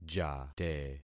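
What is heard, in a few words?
"jate", concatenated syllables; Affixing experiment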